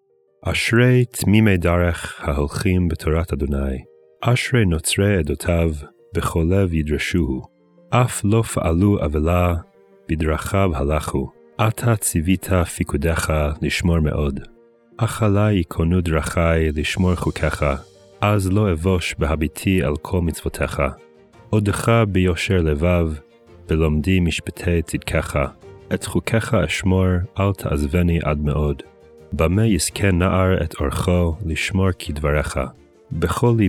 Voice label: poetry and prayer psalm hebrew